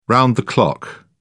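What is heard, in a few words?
"round-the-clock", British English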